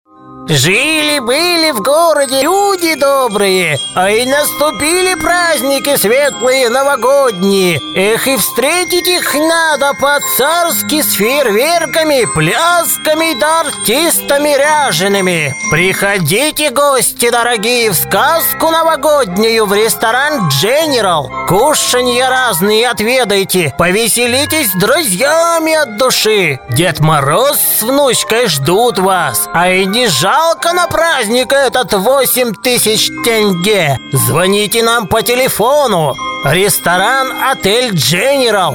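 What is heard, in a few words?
storyteller voice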